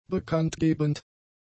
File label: "bekanntgebend", German; adjectives